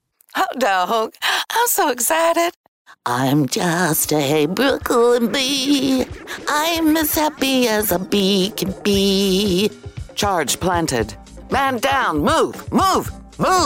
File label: Character